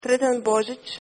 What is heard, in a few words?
christmas, hr; Sretan Božić!; Croatian